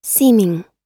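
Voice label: "seeming", American English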